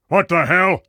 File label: surprise, metropolice; hl2